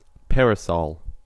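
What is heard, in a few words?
"parasol", US English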